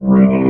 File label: "room", robot